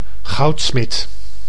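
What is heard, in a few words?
"goudsmit", Dutch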